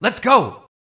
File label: voice rage